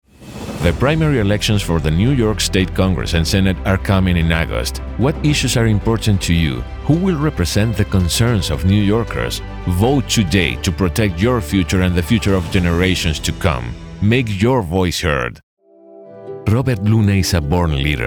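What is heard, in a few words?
Democrat English, Male Democratic Voices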